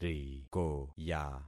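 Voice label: "rikoya", concatenated syllables; Affixing experiment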